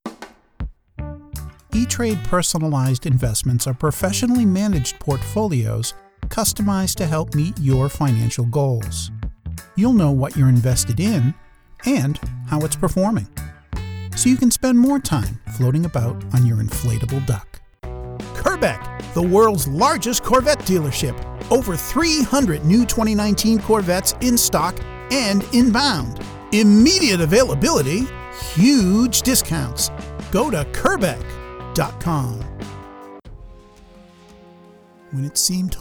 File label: Commercial